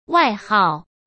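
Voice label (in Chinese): "外号", Mandarin Chinese; hsk; chinese flashcards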